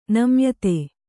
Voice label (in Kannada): "ನಮ್ಯತೆ", Kannada